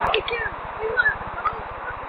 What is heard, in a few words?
Voice Of Young Boy, EVP; Lake George